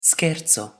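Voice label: "scherzo", pronunciation, Italian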